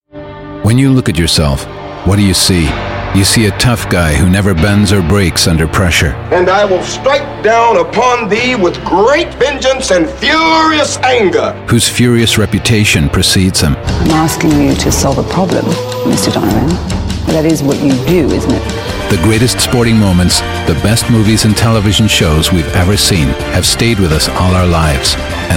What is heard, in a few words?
voice match canadian; stv